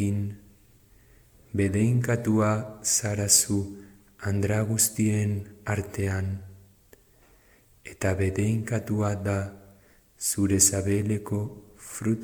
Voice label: ave maria euskera pausado

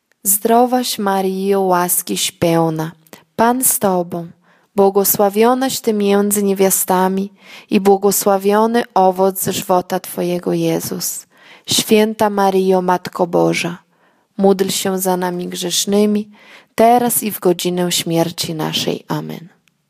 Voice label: ave maria polaco normal; ave maria idiomas

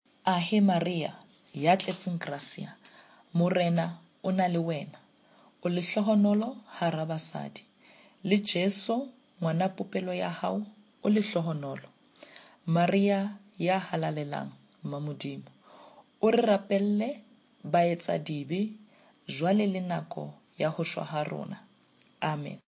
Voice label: ave maria sesotho normal

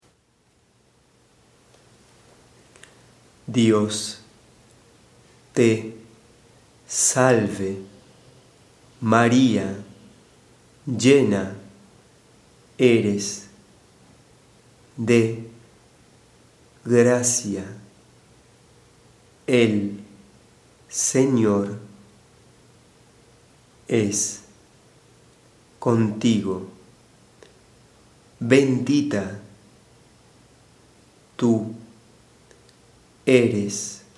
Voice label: espanol pausado, ave maria

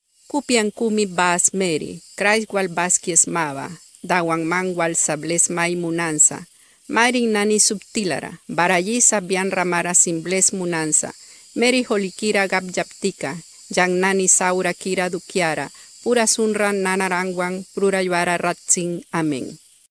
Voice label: ave maria Miskitu normal

